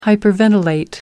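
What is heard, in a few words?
"hyperventilate", British English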